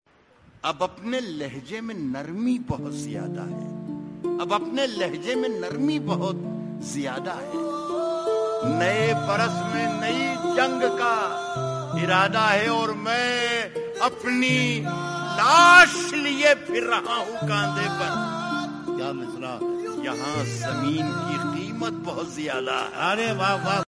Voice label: Shayari Sad; Rahat Indori Death